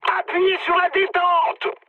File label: attack position mood high, speech fr; War Thunder